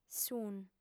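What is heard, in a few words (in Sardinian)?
"sunt", female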